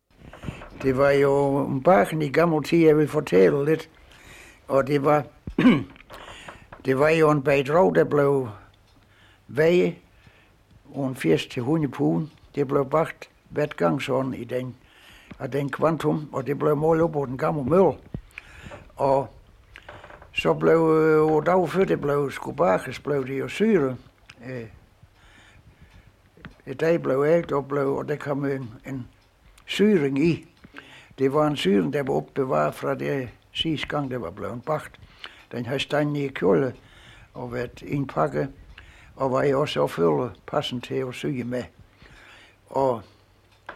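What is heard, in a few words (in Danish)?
Dialekt fra Varnæs sogn mellem Åbenrå og Sønderborg Sønderjylland, Dialekter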